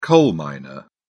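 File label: "coal miner", English, British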